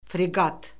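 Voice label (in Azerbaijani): "freqat", Azerbaijani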